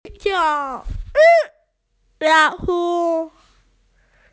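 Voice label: "lao hu" (tiger), Chinese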